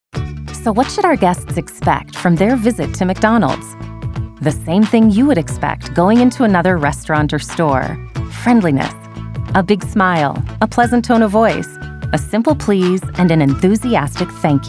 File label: Elearning